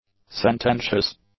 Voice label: "sententious", English; adjectives